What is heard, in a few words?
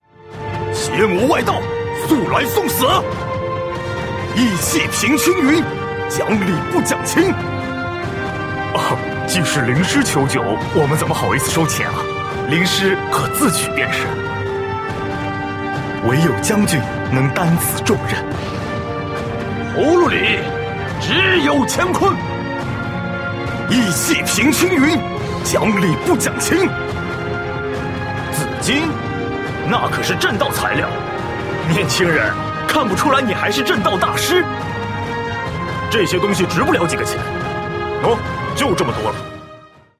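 strong middle-aged man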